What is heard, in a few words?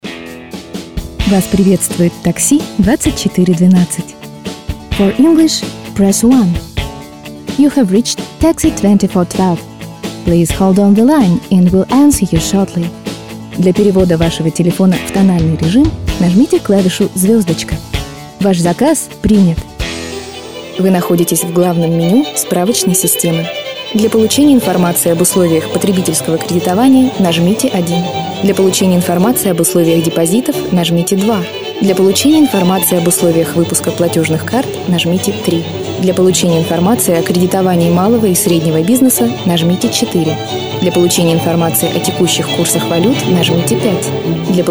rus eng